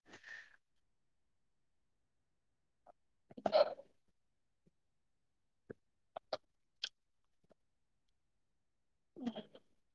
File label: voice message